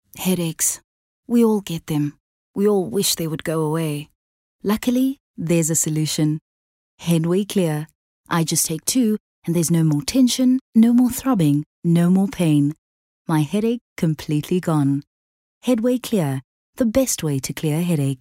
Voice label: English Conversational

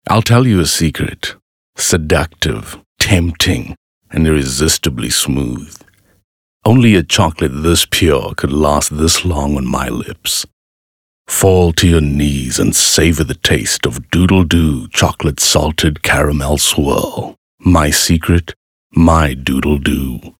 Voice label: English Intimate

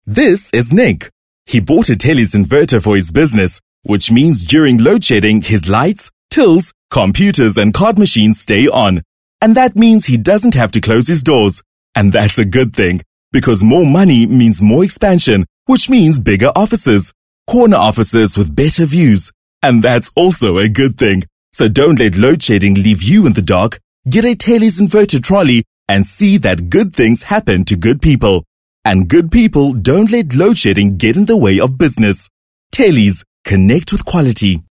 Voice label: English Conversational

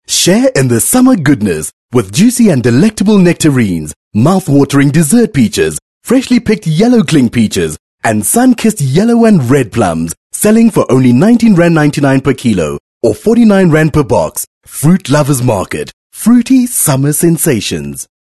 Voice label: English Bright

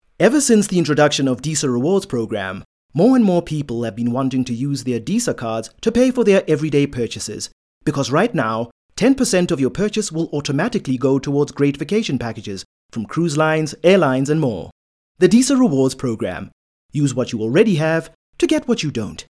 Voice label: English Corporate; OSM Talent

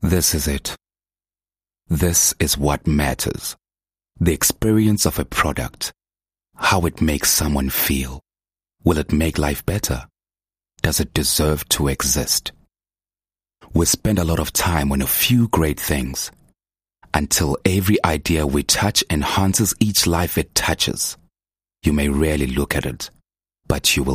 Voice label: English Intimate

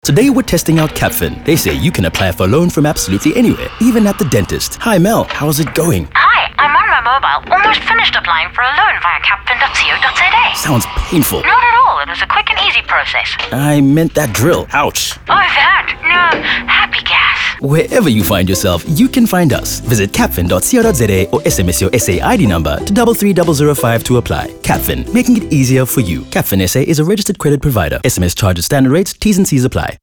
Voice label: Conversational

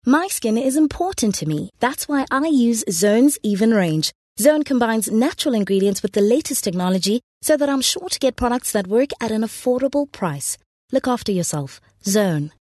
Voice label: English Softsell

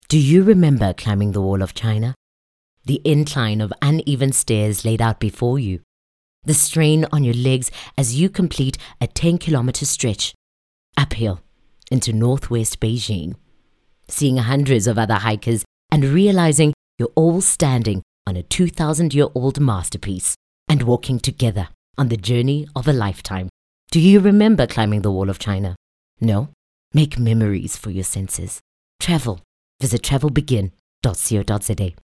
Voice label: English soft sell; OSM Talent

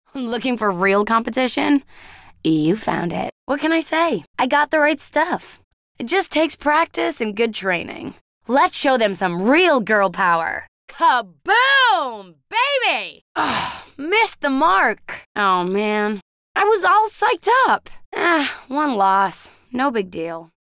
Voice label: American Accent Character Racing Game; Anki Overdrive

